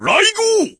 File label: voice, dubbing